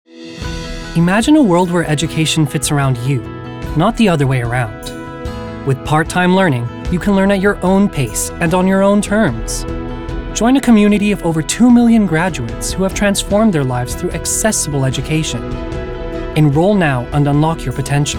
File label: Standard American, Transatlantic, Australian, Austrian & German